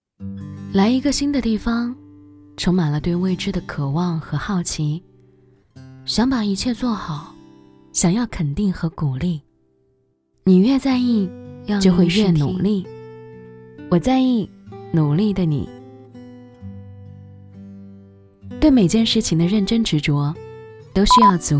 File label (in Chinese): 女 旁白; 来一个新的地方腾讯